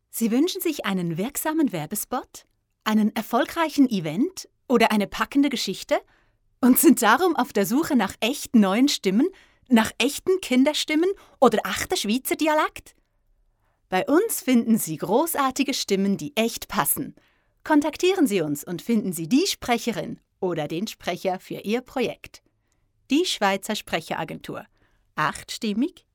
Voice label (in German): Werbung